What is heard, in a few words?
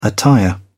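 "attire", English, British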